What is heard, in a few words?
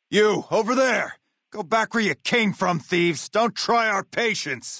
male adult leave water